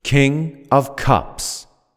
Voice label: King Of Cups Voiceover